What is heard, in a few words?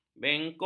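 "bengkok", Malay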